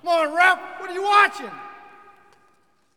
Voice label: Hockey Male "Come On"; Hockey Vocal Sounds